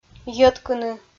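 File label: "йӧткыны", Komi, female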